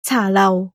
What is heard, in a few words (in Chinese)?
茶樓, cantonese